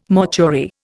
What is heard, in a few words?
"mortuary", Indian